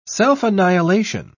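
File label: "self-annihilation", British English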